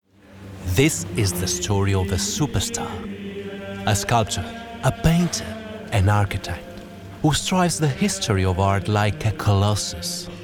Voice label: male italian english with italian accent documentar; michelangelo documentary; devine voices